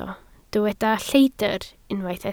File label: "lleidr", Welsh